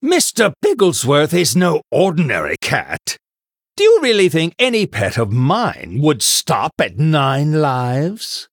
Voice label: Male Human